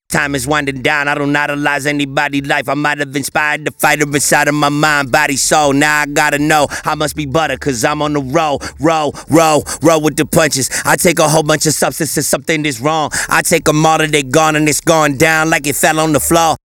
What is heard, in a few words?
rap